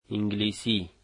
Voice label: "English", dari